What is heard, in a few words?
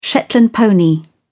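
"shetland pony", British English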